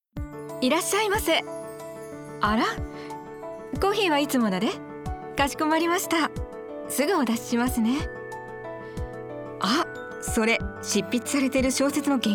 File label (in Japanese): セリフ系